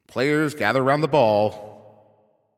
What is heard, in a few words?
Ball Placed in Start Ring, Announcer